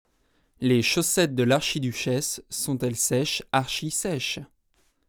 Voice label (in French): voix chaussette; akg 214